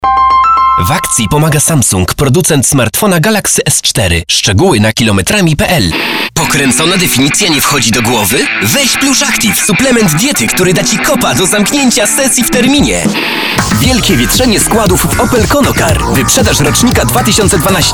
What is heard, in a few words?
M COMMERCIAL